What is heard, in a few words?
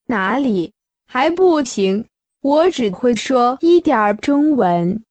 Mandarin Conversation